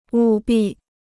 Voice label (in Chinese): "务必", Chinese